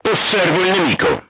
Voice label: target lock, crew tanks italian; War Thunder